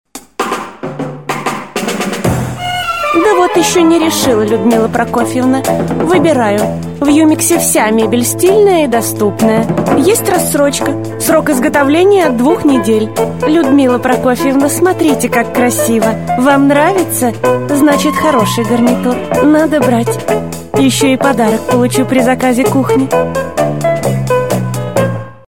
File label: Parodiya; Рекламный ролик с пародией на Верочку из х/ф «Служебный роман»